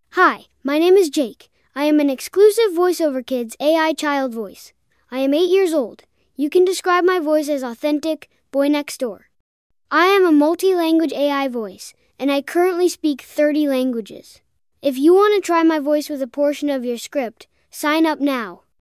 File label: boy8